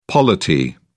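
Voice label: "polity", British English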